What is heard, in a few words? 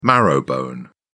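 "marrowbone", British English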